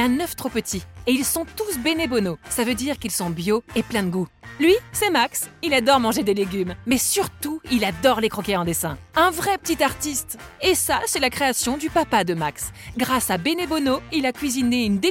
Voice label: Publicite Produits Alimentaires Anti Gaspi; voix-off

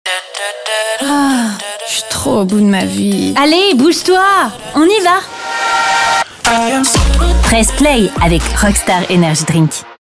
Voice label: pub energy drink; Rockstar; Press Play

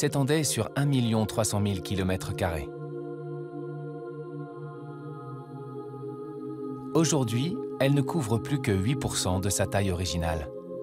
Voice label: narration forêt brésilienne

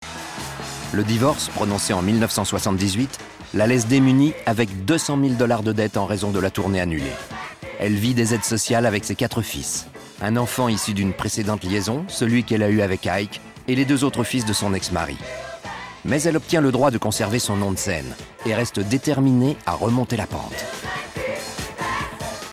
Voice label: Docu narration

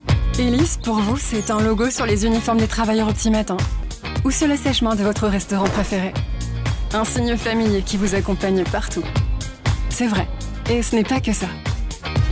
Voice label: institutionnel FR; voix-off

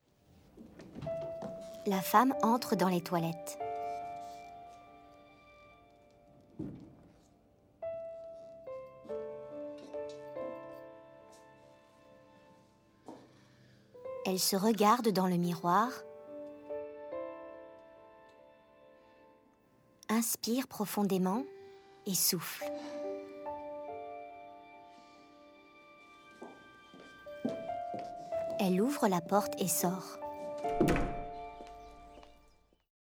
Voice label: Audiodescription

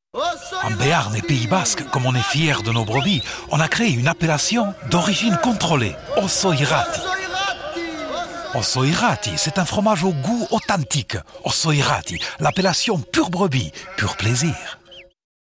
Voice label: BASQUE; Ossau Irraty

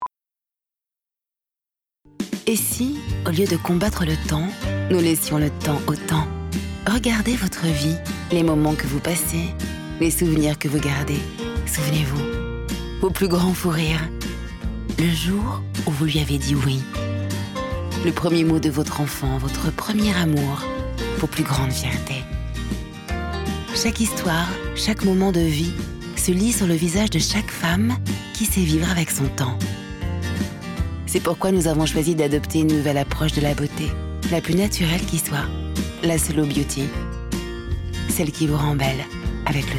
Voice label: pub narratif; FLORENA

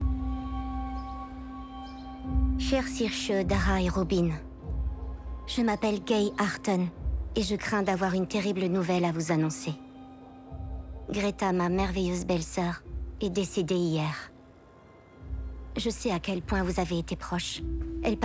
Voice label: Voix jeune femme narration, voix-off; Belfast

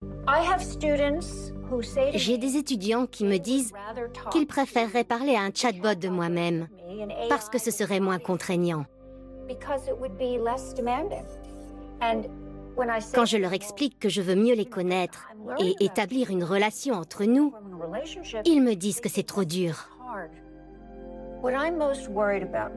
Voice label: Voice over femme universitaire; film IA; Arte

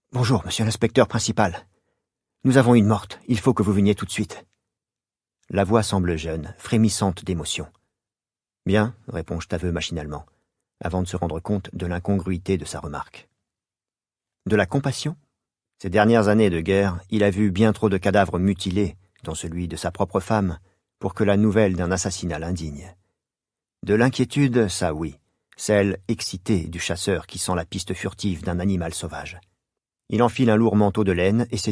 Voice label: Livre audio; Kay Rademacher; Trilogie Hambourgeoise

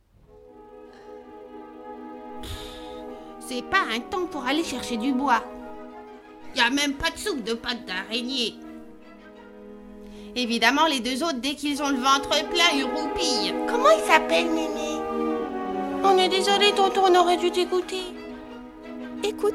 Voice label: medley enfants

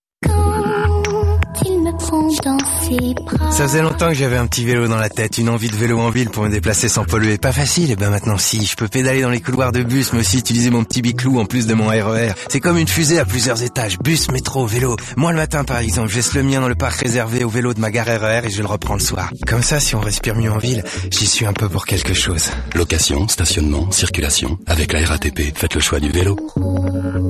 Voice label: PUB RADIO; RATP

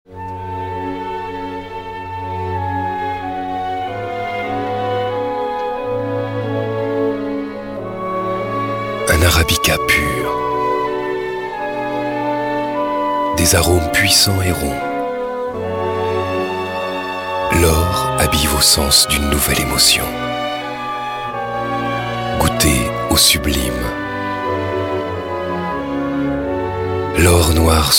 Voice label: Voix H; carte noire

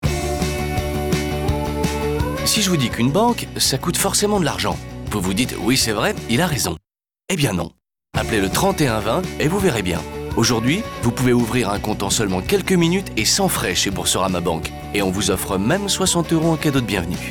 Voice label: radio; boursorama

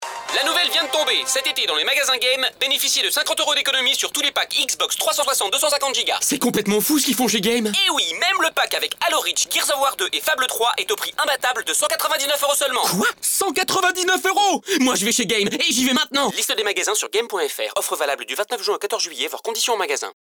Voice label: pub radio; XBOX GAME

